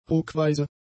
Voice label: "ruckweise", German; adjectives